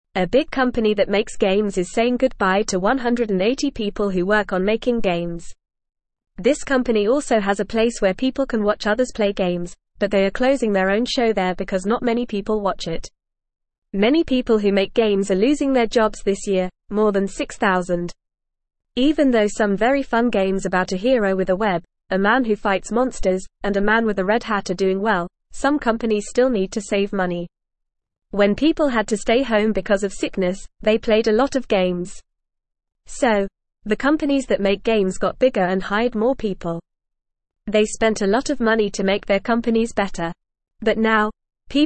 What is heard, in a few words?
English Newsroom Beginner FAST Reading; Big Game Company Says Goodbye to Many Workers